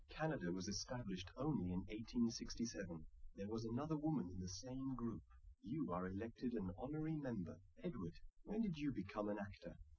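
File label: male live recording; SiSEC10; underdetermined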